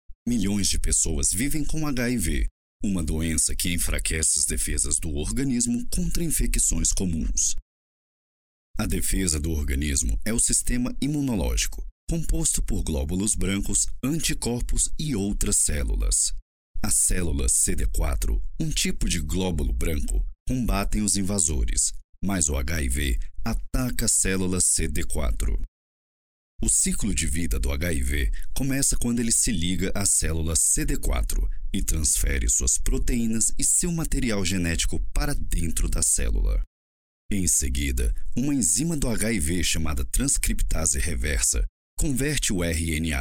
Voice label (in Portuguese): Informativo; brazilian